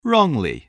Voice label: "wrongly", voice, english

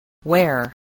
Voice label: "ware", spelling

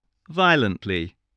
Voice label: "violently", English

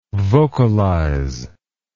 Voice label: "vocalise", English

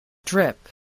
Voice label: "drip", spelling

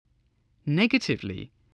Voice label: "negatively", voice

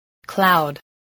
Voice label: "cloud", voice

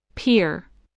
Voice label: "peer", English, voice

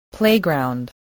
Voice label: "playground", English

